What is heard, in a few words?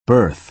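"berth", American English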